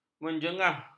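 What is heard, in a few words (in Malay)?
"menjengah", Malay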